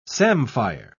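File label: "samphire", British English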